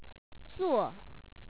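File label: "zuo4", Chinese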